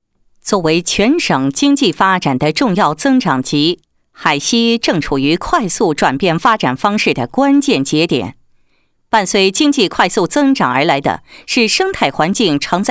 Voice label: 女 政府党建 工作纪实; 海西州环境保护局创建先进单位工作纪实

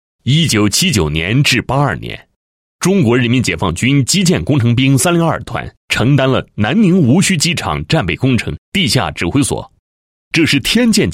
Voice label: 男 企业 宣传介绍; 天健集团宣传介绍

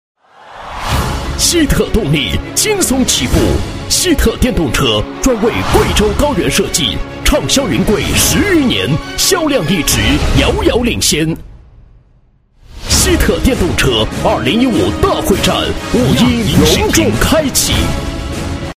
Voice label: 男 促销 激情电动车广告, 国语男声配音

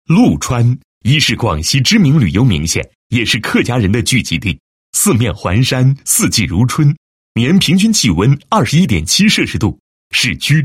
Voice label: 男 栏目配音, 国语男声配音

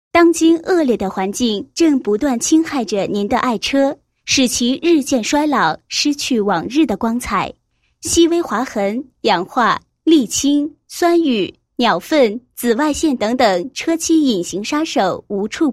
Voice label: 女, 宣传广告; 佩斯尔盾GBW黄金香蕉蜡养护套装

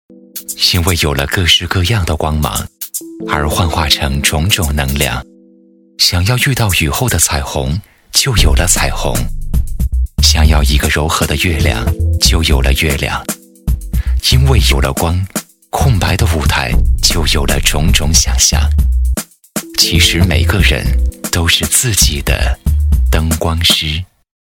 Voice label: 男 旁白 灯光师, 年轻

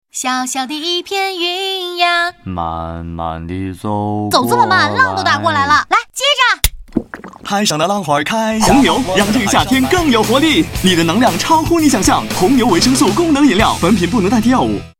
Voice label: 男 广播广告 搞笑; 红牛

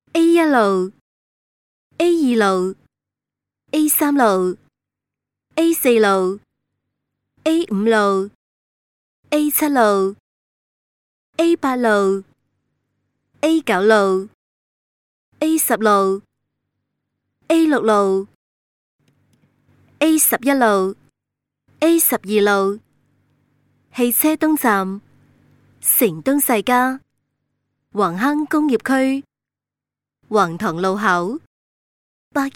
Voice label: 粤女 粤语报站语音

